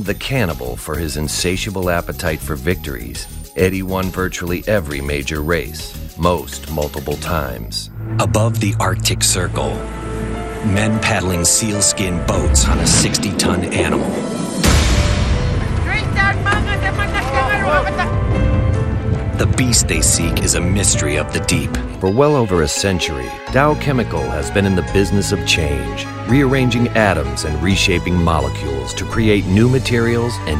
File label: Narration